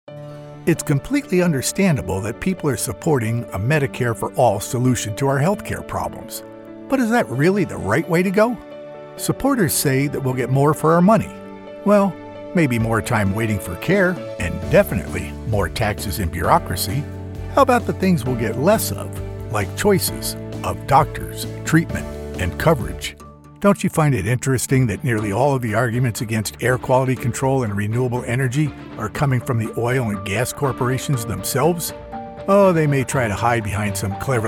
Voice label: Political Voiceover TV Digital